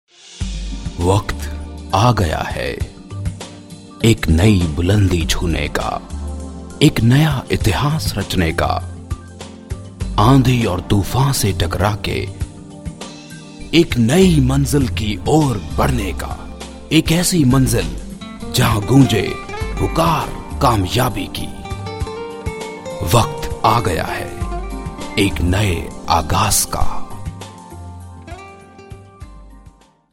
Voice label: Hindi Corporate narration voice over, male voice

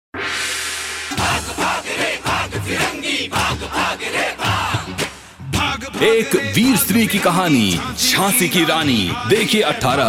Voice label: Male

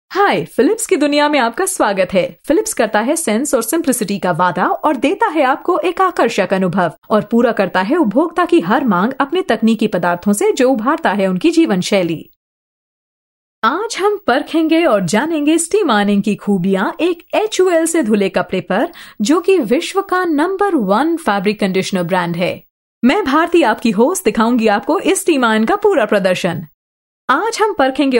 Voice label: hindi vo, female voice; philips

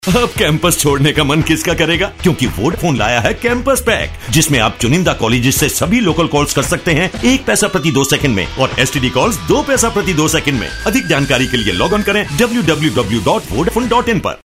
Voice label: male voice

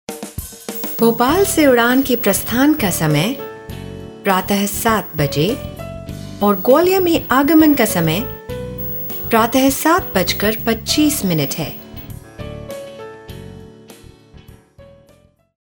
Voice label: female voice

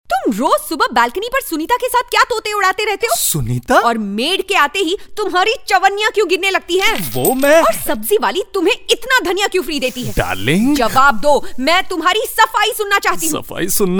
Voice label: Husband Wife hin; male voice; Mr muscle

